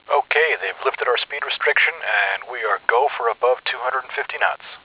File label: filtered, the voice with a radio effect